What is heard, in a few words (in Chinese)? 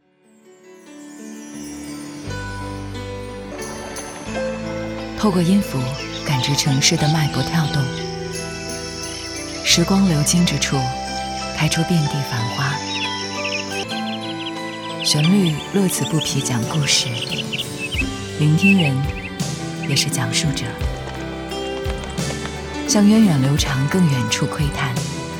女 广告 画面感; 城市音乐广场